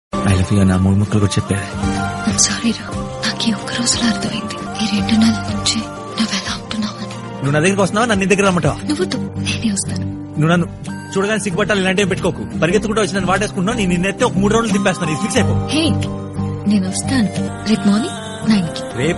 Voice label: Proposing dialogue, Telugu; Nenu Local